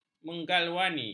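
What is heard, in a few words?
"menggalvani", Malay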